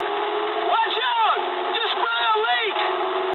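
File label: damaged water mood medium, speech English; War Thunder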